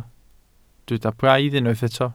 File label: "braidd", Welsh